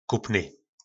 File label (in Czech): "Kupny", nářečí Po našimu; Kupovaný